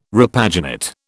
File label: "repaginate", British